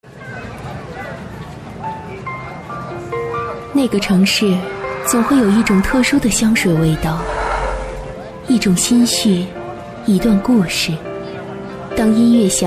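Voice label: chinese mandarin female commercial; voice demos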